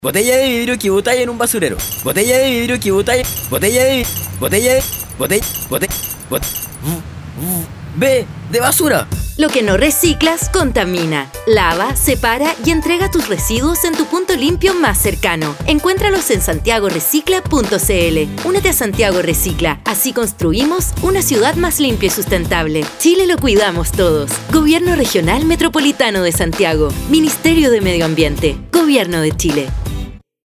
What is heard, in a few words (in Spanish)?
Spot Radial; Stgo REcicla Botella de Vidrio